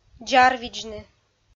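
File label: "дзарвидзны", Komi, female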